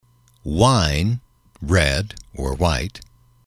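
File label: "wine", English, male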